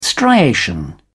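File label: "striation", English, British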